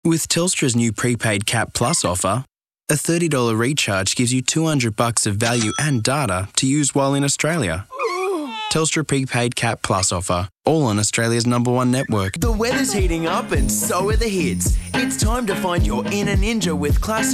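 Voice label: English Australian, male, narration; voice demos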